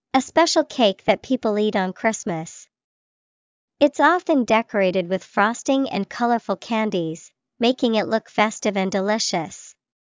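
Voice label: Christmas cake, English vocabulary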